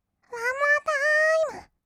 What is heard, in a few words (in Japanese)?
ワンモアタイム, kawaii voice; ASMR; 1200 kawaii voice!!; 音ゲー